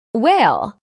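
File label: "whale", English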